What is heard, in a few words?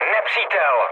enemy detected, speech cze, mood low; War Thunder